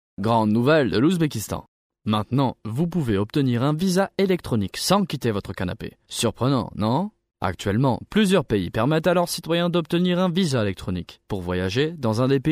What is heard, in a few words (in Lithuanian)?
VYR Prancuzu balsas, vyras